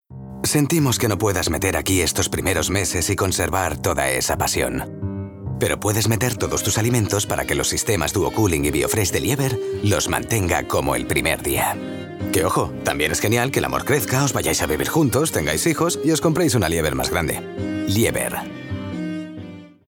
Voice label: male spanish fridge comercial; ideasonora